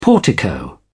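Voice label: "portico", British English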